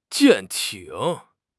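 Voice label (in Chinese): "舰艇", Chinese; HSK6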